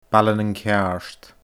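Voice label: "Baile nan Ceàrd", Scottish Gaelic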